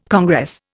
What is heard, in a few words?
"congress", Indian